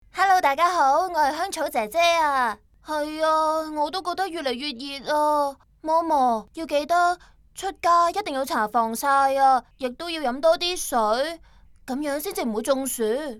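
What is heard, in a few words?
chinese cantonese female character; voice demos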